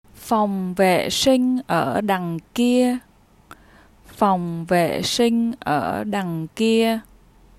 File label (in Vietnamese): The washroom is over there, vietnamese; Concern for needs